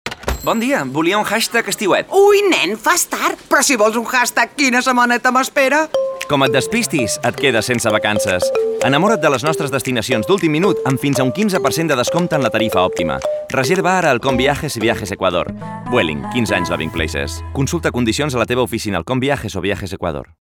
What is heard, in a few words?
male catalan travel travel; ideasonora